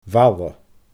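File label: "Bhalla", Scottish Gaelic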